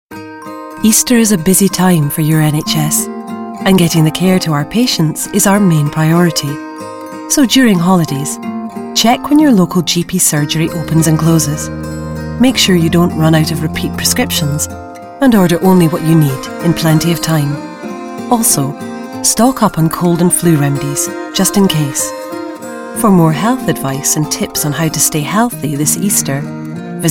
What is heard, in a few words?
Warm Corporate